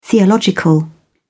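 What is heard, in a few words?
"theological", British English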